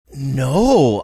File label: drawn out no